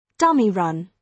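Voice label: "dummy run", British English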